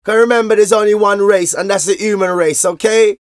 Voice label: Vocals; Jungle Frenzy 1